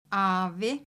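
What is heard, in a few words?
"Afi", Icelandic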